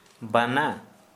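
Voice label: "bear", Santali, male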